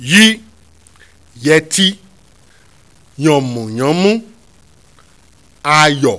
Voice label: "y yyy", Yoruba; CONSONANTS; yeti-yanmuyanmu-ayo